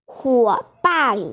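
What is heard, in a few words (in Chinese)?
"huo3ban4", Mandarin Chinese, female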